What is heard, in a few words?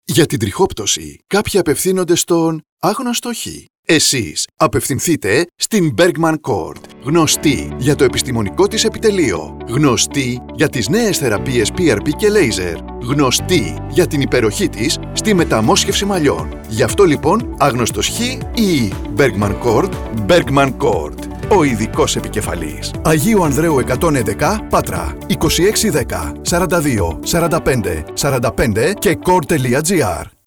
radio spots